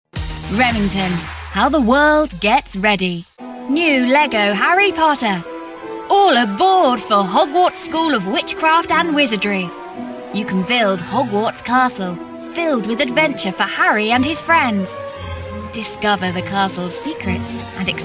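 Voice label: British, voice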